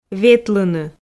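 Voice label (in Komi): "ветлыны", Komi, female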